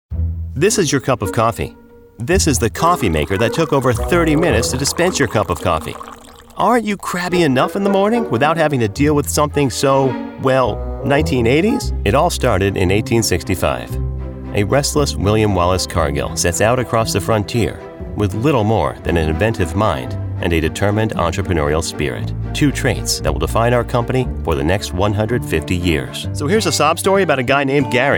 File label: 30s40s Male Narration